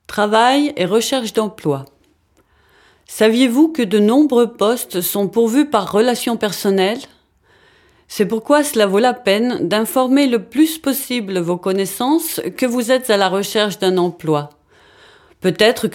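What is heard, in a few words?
French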